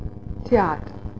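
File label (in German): "theater", German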